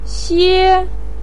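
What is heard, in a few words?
"xie", Mandarin pinyin, first tone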